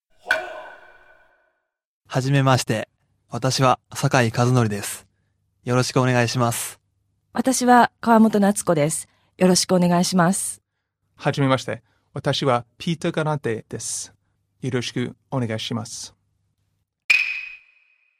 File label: dialog; JapanesePod101; Beginner Season 1